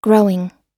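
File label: "growing", American English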